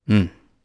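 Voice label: voice Aff2, voices heroes, Japanese; kingsraid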